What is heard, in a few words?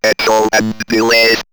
Robot Echo & Delays, robot vox; X-Static Goldmine